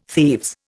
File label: "thieves", Indian